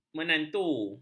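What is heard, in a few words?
"menantu", Malay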